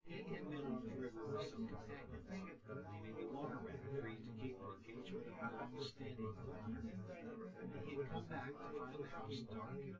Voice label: male synth conv